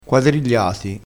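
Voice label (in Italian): "quadrigliati", vocabolario dialettale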